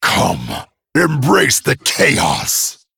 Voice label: Male Dragon Start

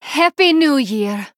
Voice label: Female Draenei HAPPY NEW YEAR LUNAR

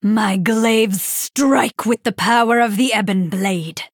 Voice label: Female Night Elf

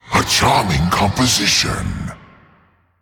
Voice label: Male Elemental

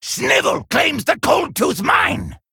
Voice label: Male Kobold Play

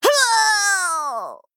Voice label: Female Troll Death

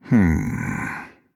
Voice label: Male Night Elf Thinking

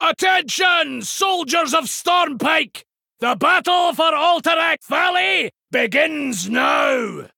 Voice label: Male Dwarf Bark; Vanndar Stormpike

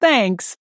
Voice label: Female Human Thanks; Young Cariel; Cariel Mission1

